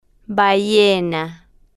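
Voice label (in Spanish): "ballena", spanish, female, slow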